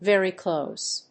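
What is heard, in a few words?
"Very close", English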